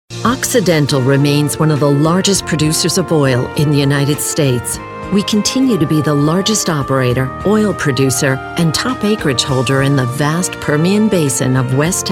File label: narration female industrial